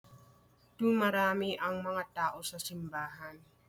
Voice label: Tagalog